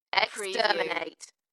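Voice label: British female Exterminate; Dalekness; beanstalk audio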